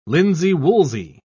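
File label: "linsey-woolsey", British English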